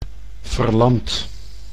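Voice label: "verlamd", Dutch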